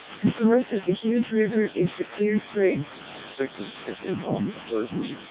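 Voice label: noisy English speech at SNR = 10 dB, CODEC2 vocoder; 700 bps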